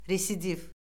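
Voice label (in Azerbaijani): "residiv", Azerbaijani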